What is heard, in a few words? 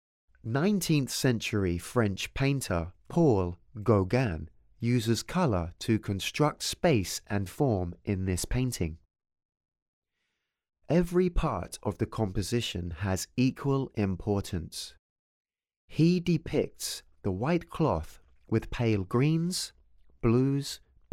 English, audio guide